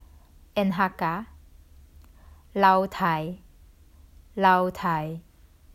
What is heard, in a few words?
Lau Tai 老弟 Hakka; The Duke Who Didn’t: Glossary & Pronunciation Guide